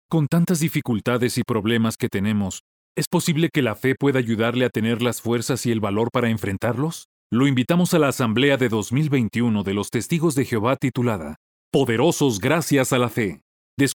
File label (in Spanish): Radio Spot